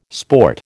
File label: "sport", American